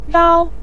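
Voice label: "rao", Mandarin Chinese, neutral tone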